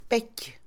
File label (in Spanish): culpa, Mixe, Mujer; Emociones; INALI